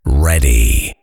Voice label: ready, Voiceover Pack Fighter; Kenney's Sound Pack

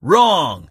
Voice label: "wrong", Male; Kenney's Sound Pack; Voiceover Pack

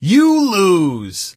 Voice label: you lose, Male; Kenney's Sound Pack; Voiceover Pack

